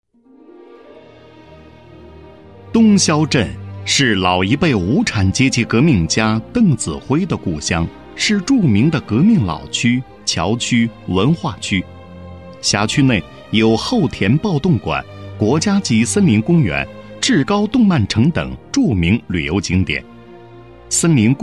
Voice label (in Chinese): 男 专题, 国语男声配音; 东肖镇宣传